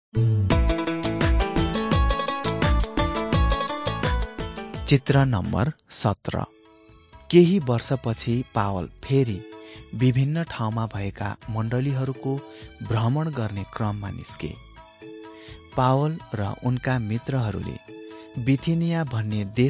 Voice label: acts of the holy spirit nepali

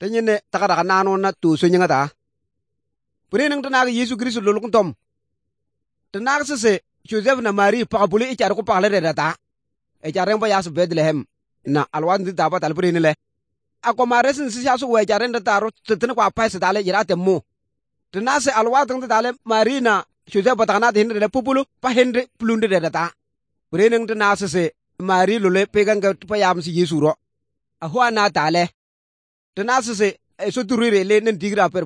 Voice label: good news, kabiye bible

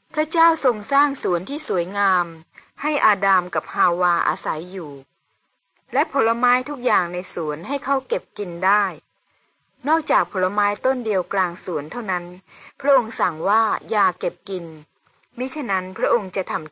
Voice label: good news short thai central

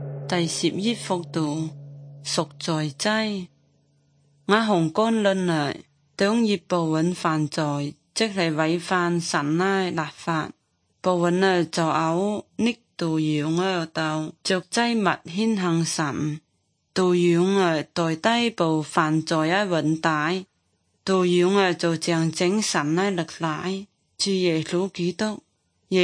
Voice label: good news zhuang guigang guiping

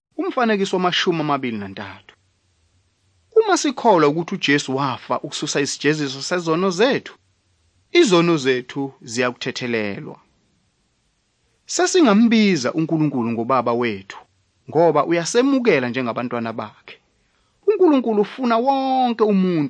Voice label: zulu bible; good news

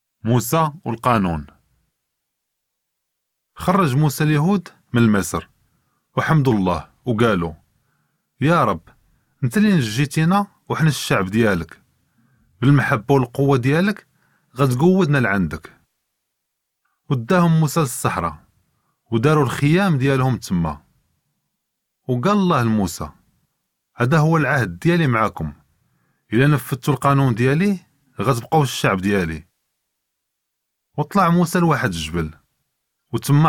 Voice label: moses law one story, moroccan arabic

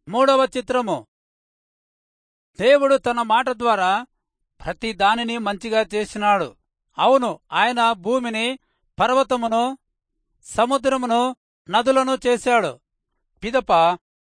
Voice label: telugu rayalseema; good news

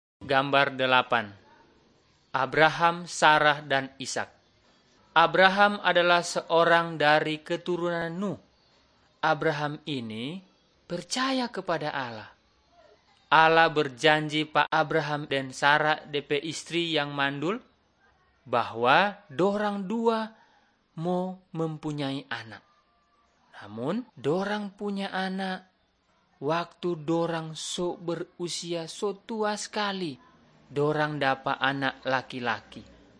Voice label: malay manado; good news

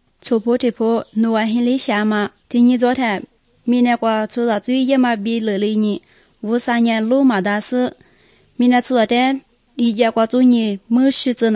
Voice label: good news lisu southern, drama

